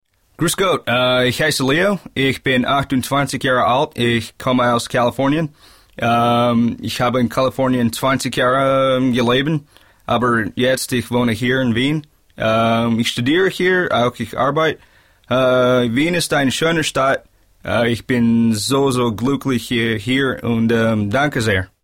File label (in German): Akzent Amerikanisch